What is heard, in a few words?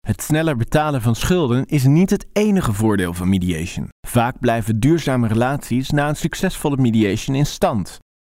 dutch corporate videos